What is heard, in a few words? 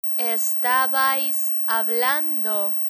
"estabais hablando", Spanish